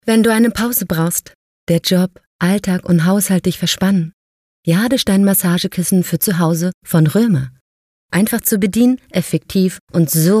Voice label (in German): Sprachprobe Werbung; Römer